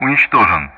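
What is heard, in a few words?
Speech Sound Russian; Common Player destroyed; DCS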